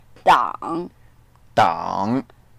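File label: "dang3", Mandarin Chinese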